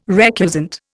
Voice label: "recusant", Indian